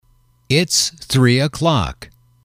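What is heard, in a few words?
it is three oclock, English, male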